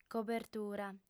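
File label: "cobertura", female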